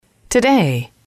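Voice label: "today", English, female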